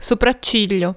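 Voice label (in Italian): "sopracciglio", Italian; ktuberling; games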